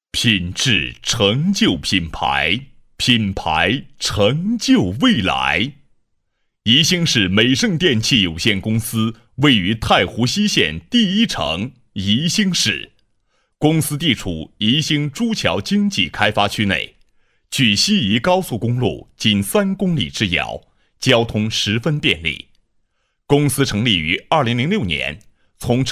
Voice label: 专题, 男; 新闻广告专题颁奖; 美盛电器

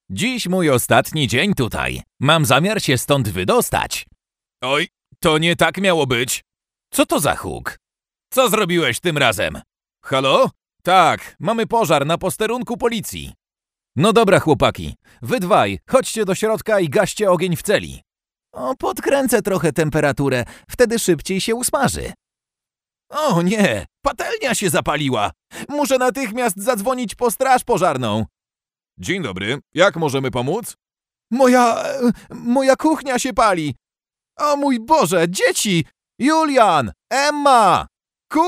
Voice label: 波兰语男 角色模仿

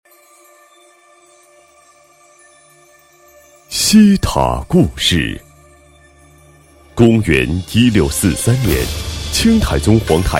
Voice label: 男 解说 旅游宣传片解说词; 西塔旅游宣传片解说词

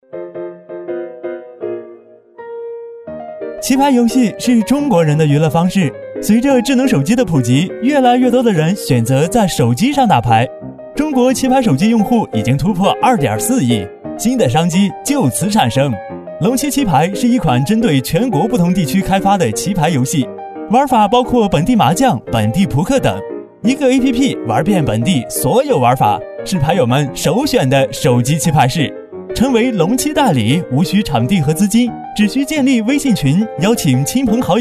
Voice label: 男 棋牌游戏, 国语男声配音; 飞碟说

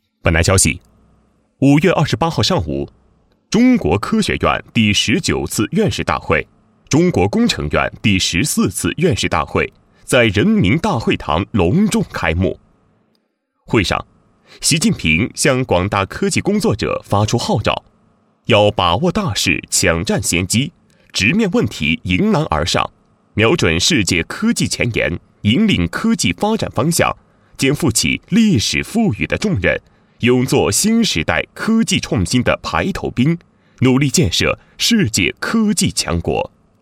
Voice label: 男 新闻; 院士大会

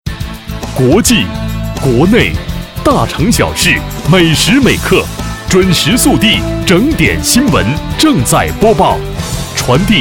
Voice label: 男 整点新闻 电台版头, 国语男声配音

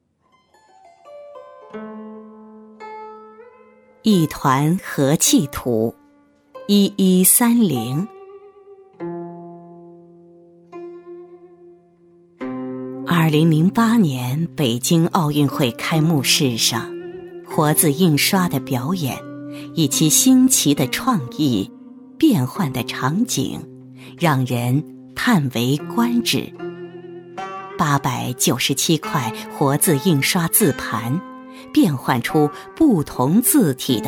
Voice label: 女 名画解说, 国语女声配音; 全能配音

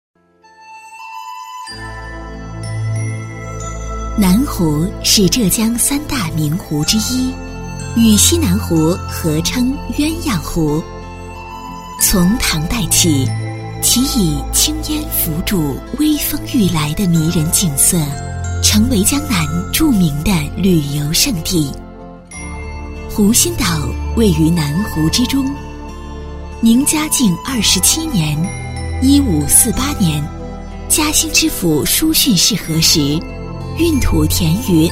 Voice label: 女 专题; 嘉兴

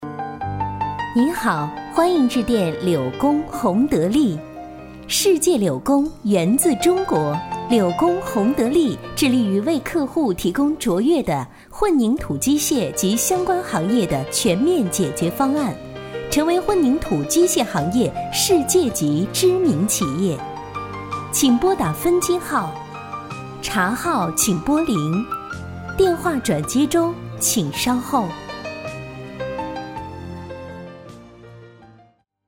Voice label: 女 电话彩铃 正式

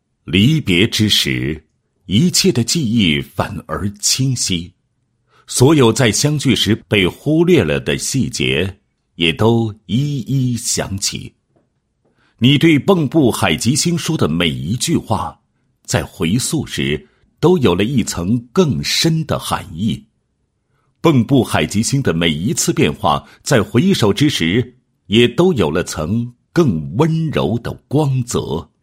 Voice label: 男 祝福词; 尊敬的陈董 祝您履新愉快

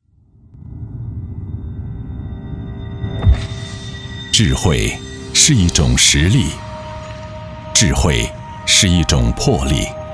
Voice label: 男 企业专题 磁性神秘感; 武汉智慧地铁科技

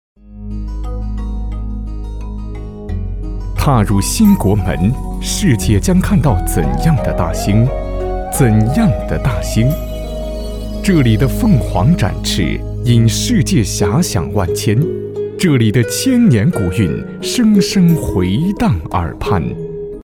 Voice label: 男, 专题风景介绍

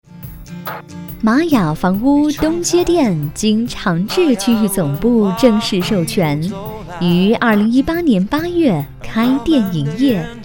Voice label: 房屋宣传语; 玛雅

